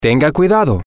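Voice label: be careful, Spanish, male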